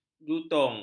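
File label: "jutung", Malay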